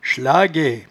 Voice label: "schlagaie", patois jura